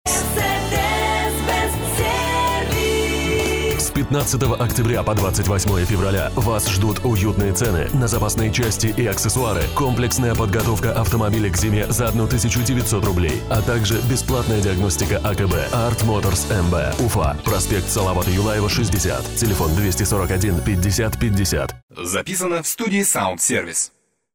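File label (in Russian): advert, Мужчина, Молодой, Русский, Бархатный, Средний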